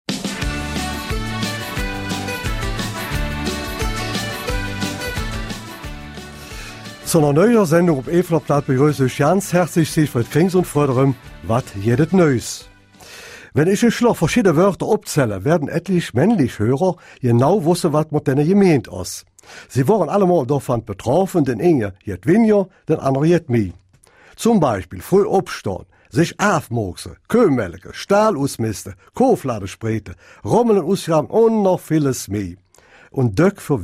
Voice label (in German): Mundart; Autor